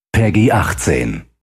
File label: german; pegi 18